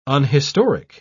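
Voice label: "unhistoric", British English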